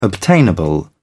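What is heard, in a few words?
"obtainable", British English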